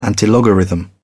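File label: "antilogarithm", British English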